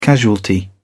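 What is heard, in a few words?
"casualty", British English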